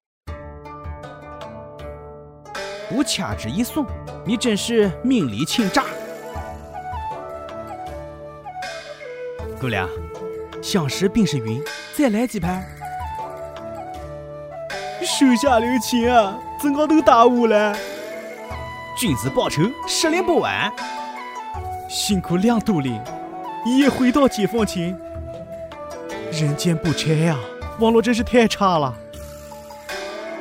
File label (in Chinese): 男 棋牌 合肥话配音